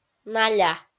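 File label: "наля", Komi, female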